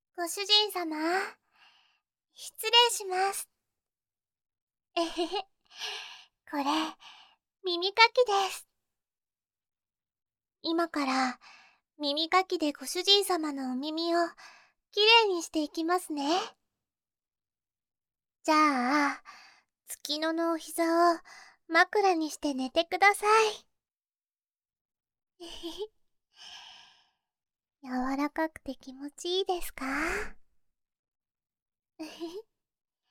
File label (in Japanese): 膝枕 あまあま メイド 耳かき; 添い寝メイドカノジョ